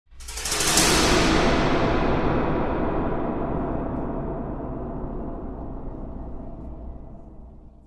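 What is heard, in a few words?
team flag return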